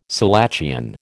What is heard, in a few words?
"selachian", American